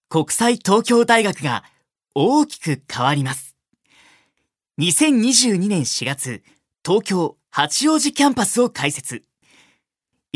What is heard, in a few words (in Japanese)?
ナレーション; 男性